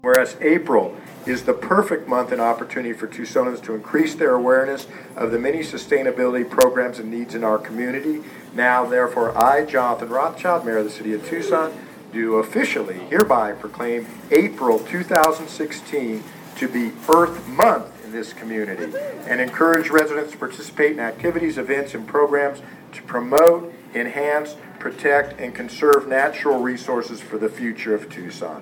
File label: Mayor Speech